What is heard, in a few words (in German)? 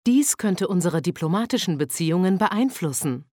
Speech, German, Advisors; Civ5; Effect Diplomatic Standing